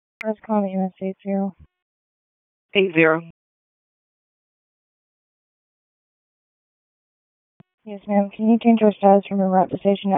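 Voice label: EMS Dispatch; wake; p25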